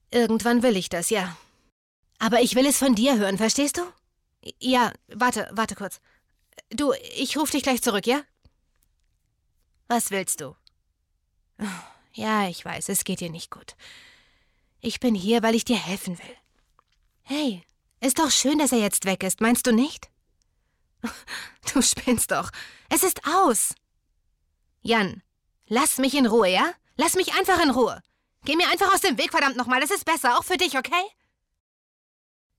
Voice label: synchron

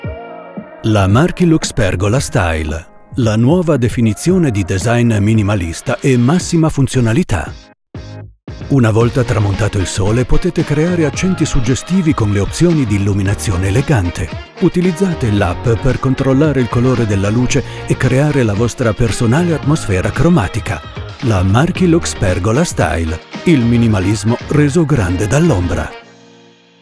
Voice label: Werbung, Commercial (Werbung), Italian; Markilux

